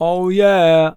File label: Male Voice Oh Yeah